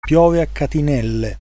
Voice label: rain, it

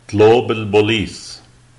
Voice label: police, lebanese, arabic

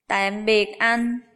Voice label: goodbye, vietnamese

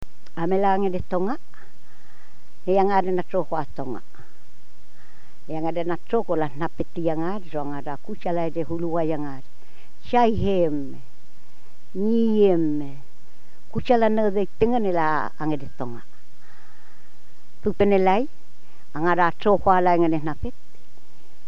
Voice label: drehu

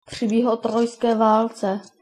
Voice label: trojan war, czech

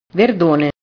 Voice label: "verdone", Italian

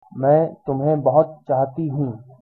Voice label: i love you hindi

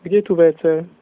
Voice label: "toilet", Slovak

